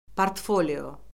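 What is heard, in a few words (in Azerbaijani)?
"portfolio", Azerbaijani; Orfoqrafiya Lüğəti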